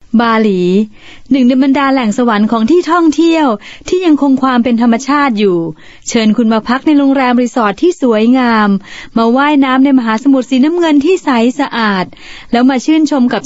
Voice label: Thai F